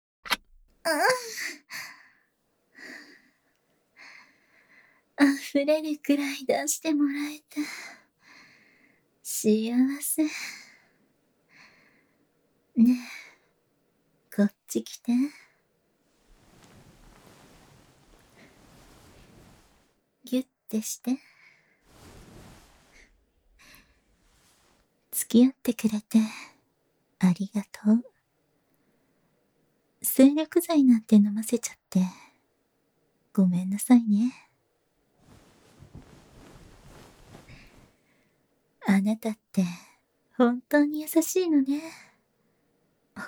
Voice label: ピロートーク; 欲情妻～秘密の妊活不倫セックス～

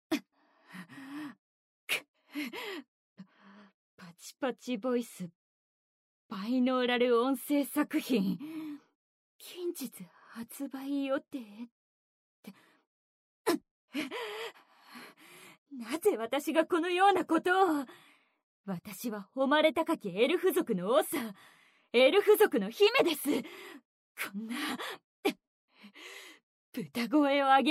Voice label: 販促ボイス; エルフの女王、メス豚堕ち

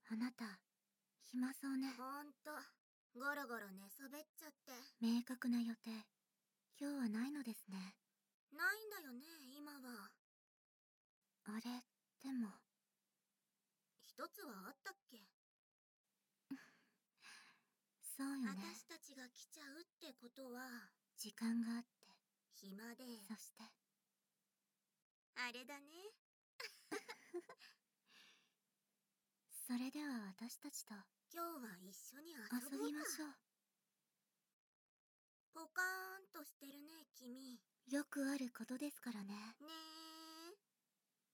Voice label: 催眠誘導; ぼっちな地味っ娘 催眠淫紋でわからせ ～魔法少女のオシゴト～

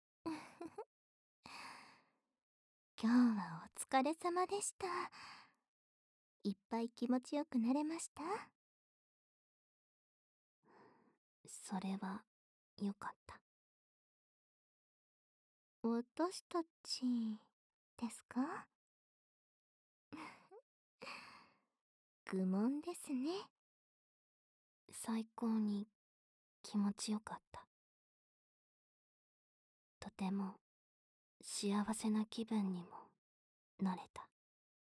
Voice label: 双子のあまあま添い寝

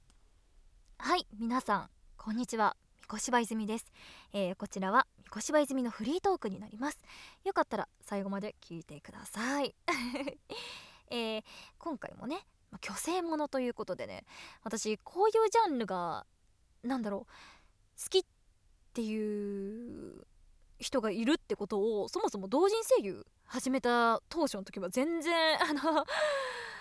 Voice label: フリートーク; 女性上位学園の授業風景〜短小チンポ観察〜; バイノーラル

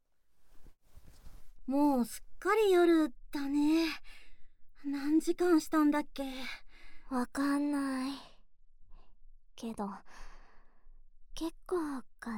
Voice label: ピロートーク

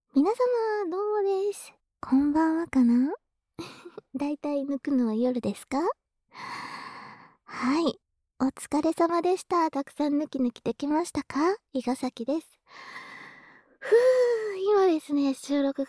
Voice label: フリートーク

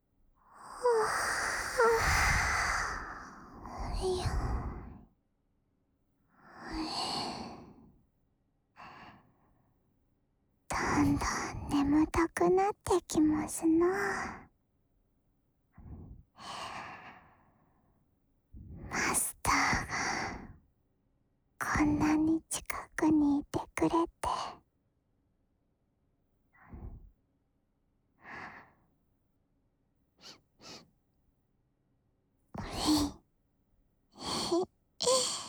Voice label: ささやき声, ASMR; 騒音に疲れたお耳をささやき声でのんびり癒やして安眠導入からの添い寝

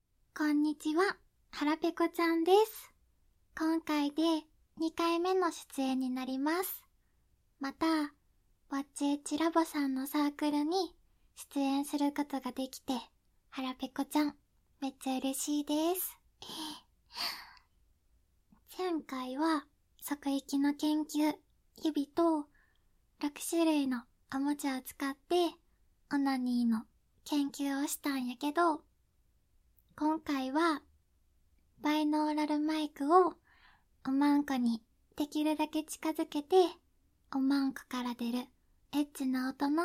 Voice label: 関西弁でご挨拶、自己紹介; 企画説明！

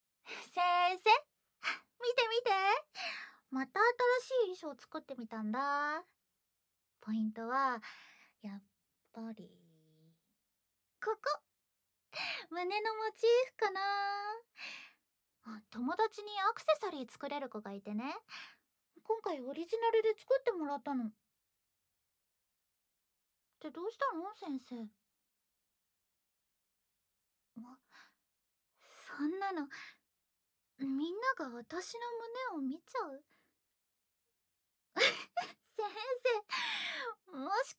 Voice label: フリートーク; SEなし; 「先生は騙されたんだよ」貴方を大大大好きなコスプレイヤーと甘々いちゃラブ孕ませ交尾【男性受け・オナサポ・カウントダウン】

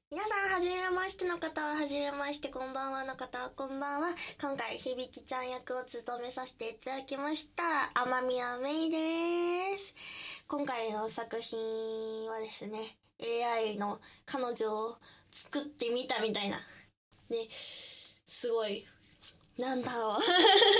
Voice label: フリートーク; 超高性能!?AIでエッチな彼女を作れる時代になりました!～生意気なメスガキに罵られながらもわからせセックス～

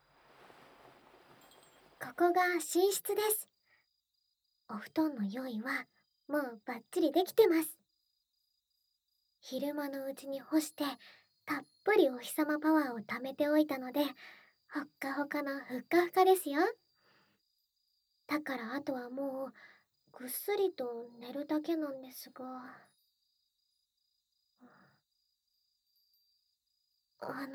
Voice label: 添い寝; 甘やかされすぎてとろけちゃいます; やおよろ温泉郷

